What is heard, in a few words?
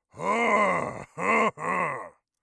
thankful, orc voice; Orc Voice Pack